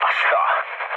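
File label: Hungarian trick mood low, speech; War Thunder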